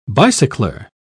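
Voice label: "bicycler", British English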